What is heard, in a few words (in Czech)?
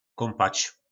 "Kómpač", Slovník nářečí; Koupat(se)